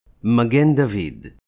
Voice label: "Magen", Hebrew

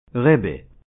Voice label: "Rebbe", Hebrew

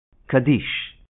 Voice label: "Kaddish", Hebrew

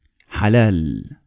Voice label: "Halal", Arabic

